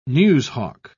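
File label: "newshawk", British English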